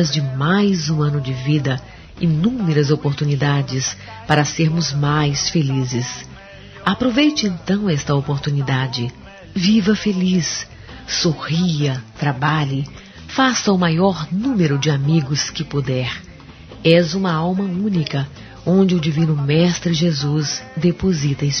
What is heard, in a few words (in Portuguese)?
Aniversário Neutro Evangélico F; Aniversários; Aniversários diversos; fem